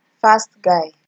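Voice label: "fast guy", Nigerian Pidgin, female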